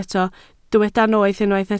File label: "noeth", Welsh